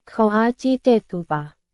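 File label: Myanmar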